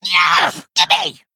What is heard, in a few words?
Female Kobold Attack